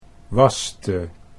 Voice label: "waste", Dutch